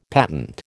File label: "patent", American